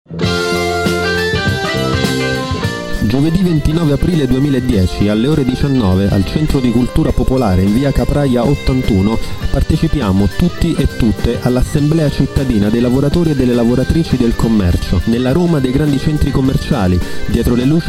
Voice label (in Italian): Spot; Commercio